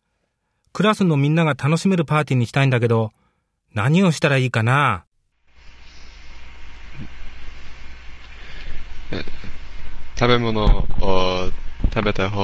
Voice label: japanese conversation

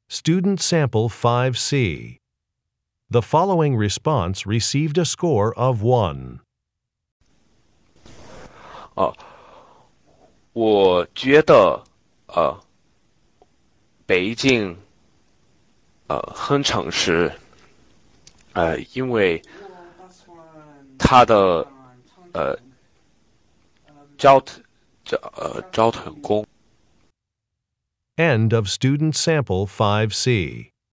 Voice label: chinese conversation

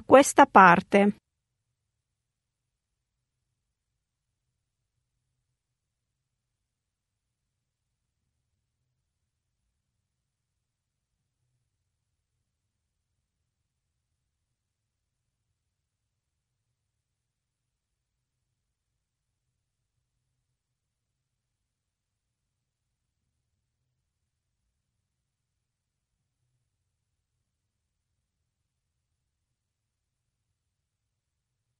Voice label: italian directions

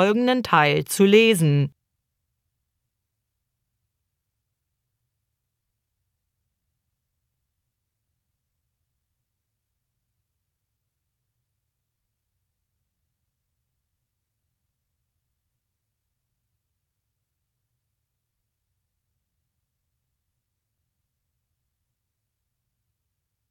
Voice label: german directions

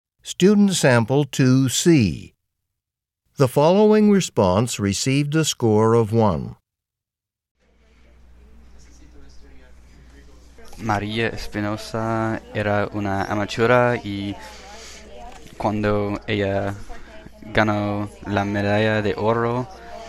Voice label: spanish

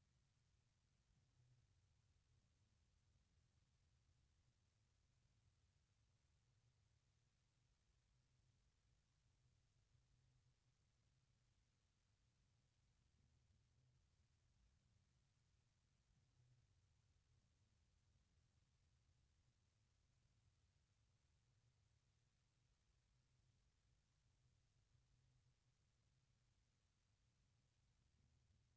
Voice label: french directions part b

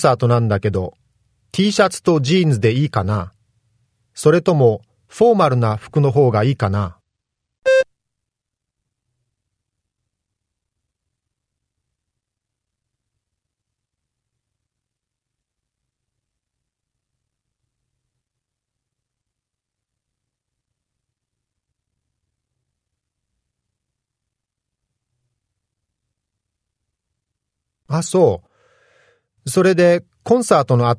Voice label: japanese conversation

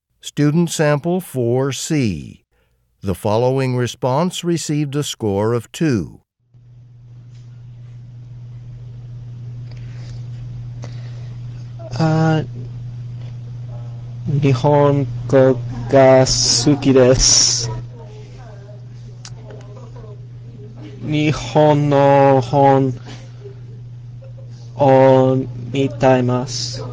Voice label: japanese conversation

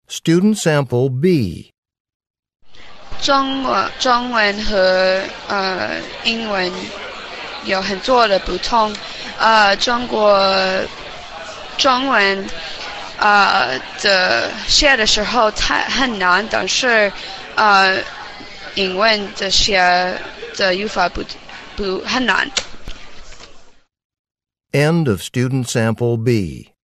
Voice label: chinese conversation

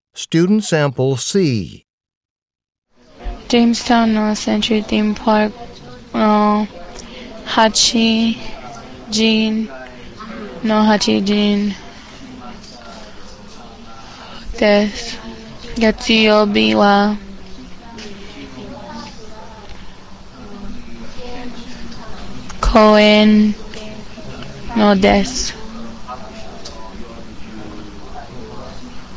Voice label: japanese school announcement

